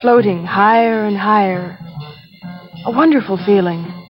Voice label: Floating, Spoken, Industrial